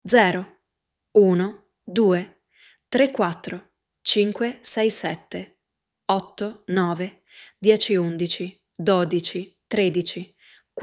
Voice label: numbers in italian